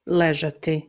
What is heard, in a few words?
"leZati", Bosnian